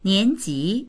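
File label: "nian2ji2", Mandarin Chinese; niánjí; 年级; 学年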